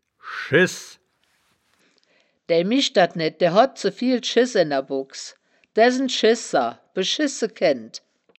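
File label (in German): "schess", wittlicher woerterbuch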